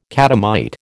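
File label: "catamite", American English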